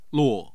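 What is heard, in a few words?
"luo", Mandarin Chinese, tone 4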